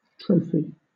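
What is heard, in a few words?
"trophy", British English; pronunciations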